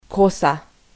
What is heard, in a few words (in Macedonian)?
"kosa", Macedonian